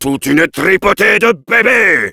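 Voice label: taunts, French; Heavy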